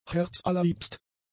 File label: "herzallerliebst", German; adjectives